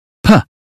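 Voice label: British phonetics sound pen; 英语音标发音（剑桥版）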